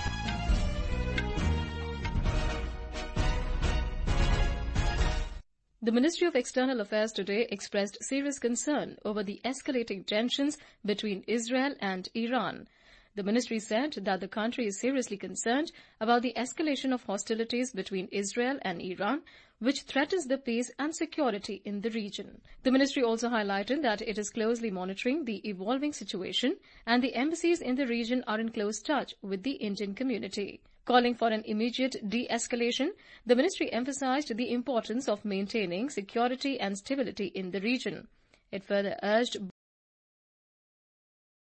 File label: English news bulletin